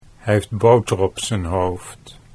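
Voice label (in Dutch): hij heeft boter op z'n hoofd, Dutch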